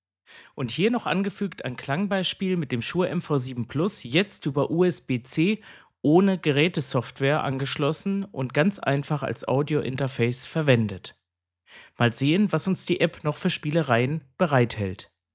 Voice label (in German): Shure MV7 Plus Sprachbeispiel